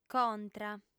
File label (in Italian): "contra", lingua sarda